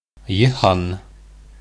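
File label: "йыһан", Bashkir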